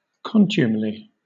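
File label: "contumely", British English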